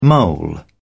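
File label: "mole", British English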